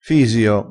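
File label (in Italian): "fisio", vocabolario dialettale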